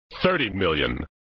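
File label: "Thirty Million", male voice; Worf; flipper; sttng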